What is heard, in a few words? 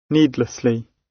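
"needlessly", British English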